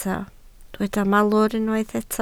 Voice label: "malwr", Welsh